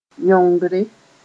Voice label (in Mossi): "yõngre", moore